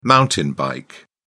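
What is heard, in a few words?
"mountain bike", British English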